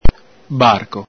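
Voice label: "barco", Veneto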